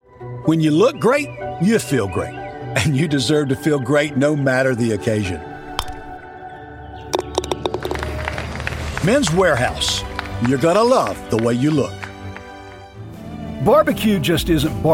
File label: Commercial